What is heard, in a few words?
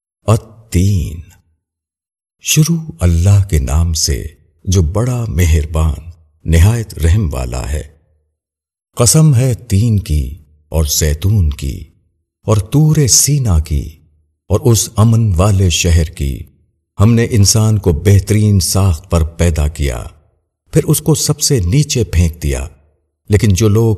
URDU TARJUMA E QURAN; 095 AL TEEN; Inzaar